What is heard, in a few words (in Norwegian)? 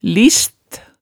"list", hemsedalsmaalet tale